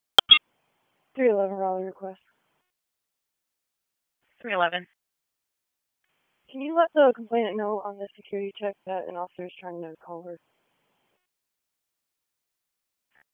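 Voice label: Police Dispatch, p25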